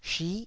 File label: "shi9", taiwanese Syllables